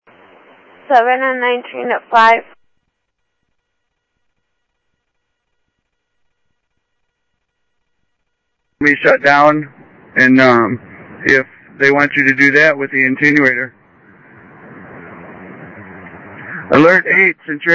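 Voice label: Light Rail Security Light Rail, scanner audio trunk; ThompsonPeakHigh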